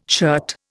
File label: "shirt", Indian